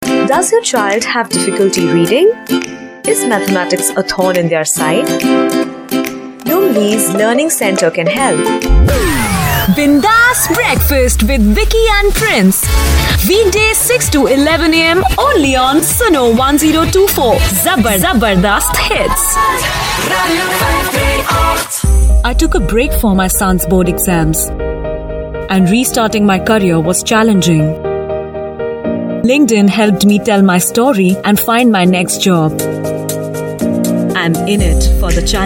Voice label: English VO